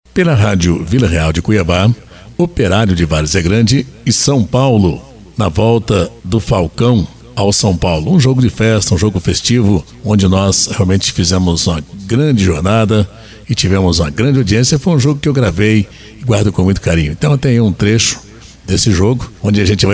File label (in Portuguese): locução esportiva